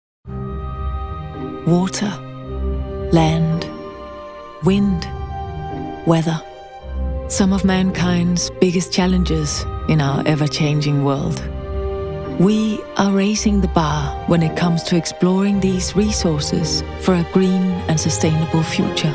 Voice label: UK Corporate